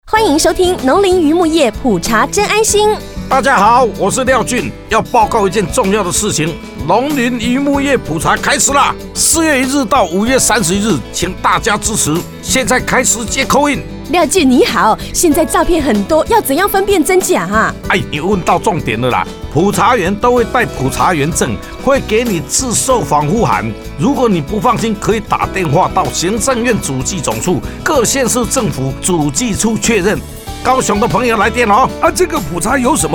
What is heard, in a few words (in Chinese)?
國語版; Call in 篇(60秒); 農林漁牧業普查